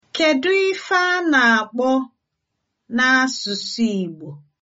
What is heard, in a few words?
how to say, igbo